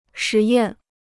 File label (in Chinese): "实验", Chinese